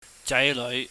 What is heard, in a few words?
"jaineuih", Cantonese